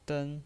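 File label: "den" Mandarin Chinese, first tone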